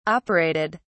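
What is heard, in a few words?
"operated", British English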